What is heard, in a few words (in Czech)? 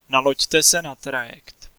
Take Ferry, Czech, male